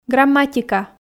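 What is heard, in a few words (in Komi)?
"грамматика", Komi, female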